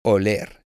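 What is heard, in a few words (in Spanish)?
"oler", Spanish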